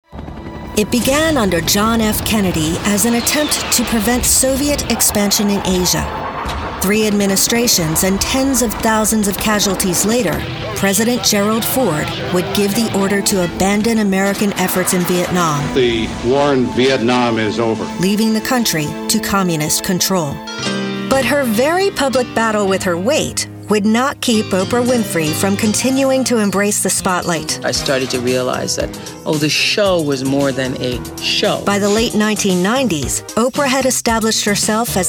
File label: TV Narration